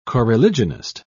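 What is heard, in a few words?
"coreligionist", British English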